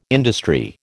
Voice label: "industry", American